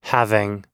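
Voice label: "having", American English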